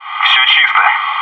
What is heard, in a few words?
"clear", bot